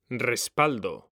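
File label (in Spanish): "RESPALDO", Spanish; mekanta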